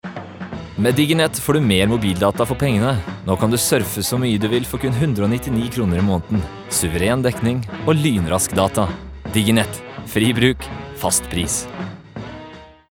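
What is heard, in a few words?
no upbeat, Norwegian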